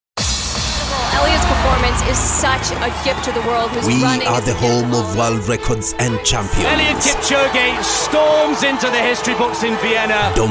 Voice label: english african promos